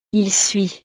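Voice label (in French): "il suit", French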